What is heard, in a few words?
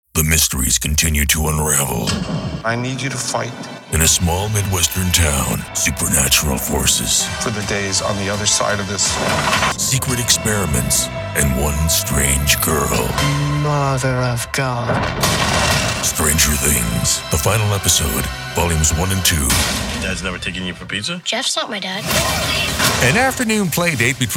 Tv Shows Promos